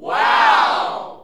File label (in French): "whaoo", hommes, expressions